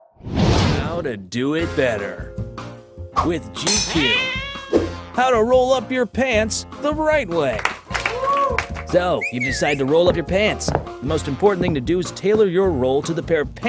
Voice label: Commercial Strong Standup Guy VO

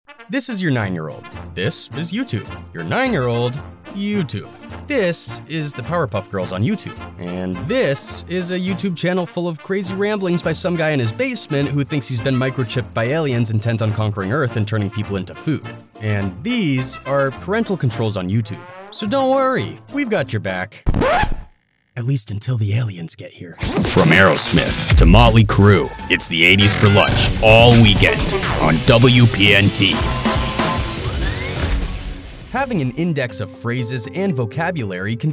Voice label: VOICEOVER

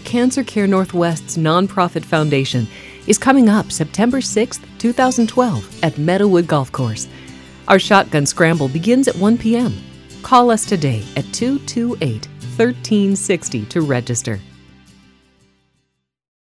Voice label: On Hold

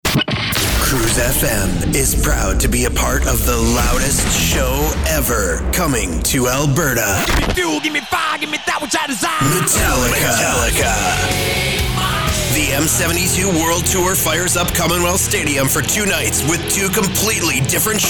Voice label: Imaging Voice Demo Rock

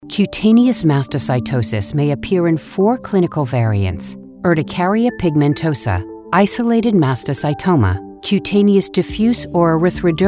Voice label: Medical Narration

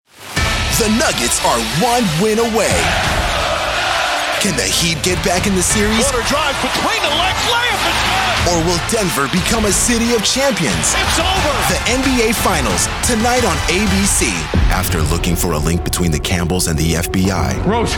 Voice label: Promo

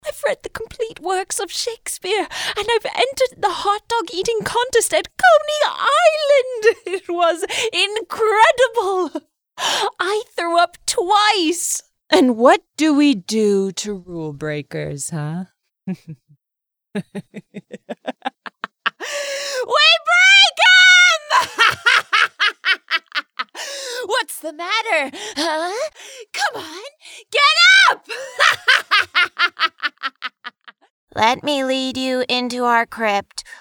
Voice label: Character Voice

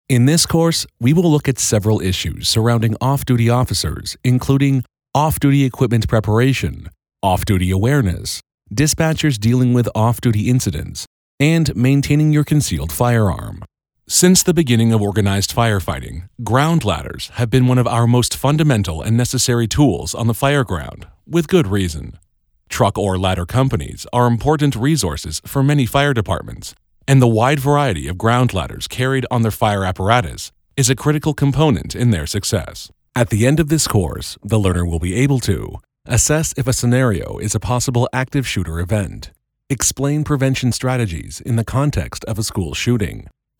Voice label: E Learning

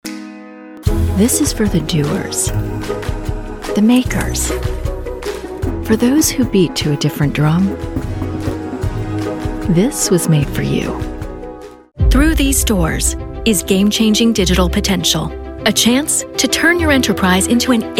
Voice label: Commercial

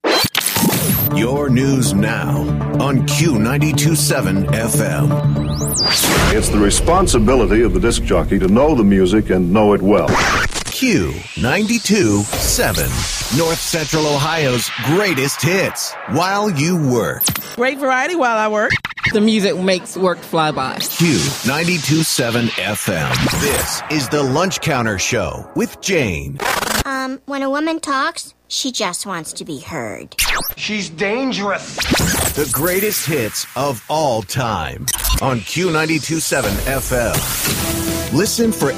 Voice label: Radio Imaging Sweepers; Q927

